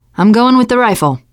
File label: take assault rifle, teen girl